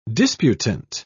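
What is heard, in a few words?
"disputant", British English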